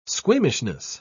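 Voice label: "squeamishness", British English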